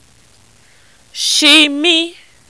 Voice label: "simi", Yoruba

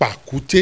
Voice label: "pakute", Yoruba; CONSONANTS; pepeye-pakute-apa

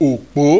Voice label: "opo", Yoruba; owo-ojo-opo

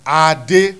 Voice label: "ade", Yoruba; CONSONANTS; dudu-doje-ade

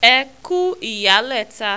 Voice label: E ku iyaleta, Yoruba

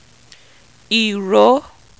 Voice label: "Iro", Yoruba

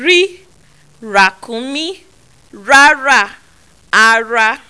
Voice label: r rrr, Yoruba; CONSONANTS; rakunmi-rara-ara

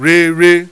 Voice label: "rere", Yoruba, mid mid tone; Nine Possible Combinations; Initial Mid tone combinations

